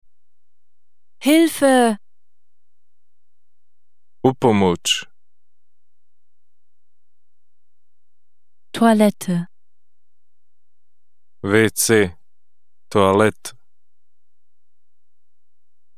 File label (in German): bosnisch